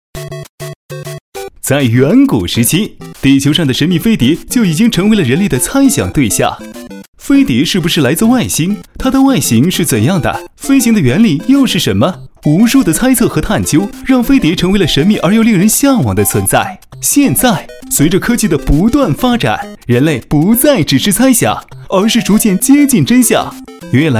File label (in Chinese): 男 特殊专题 mg动画诙谐幽默类; 飞碟说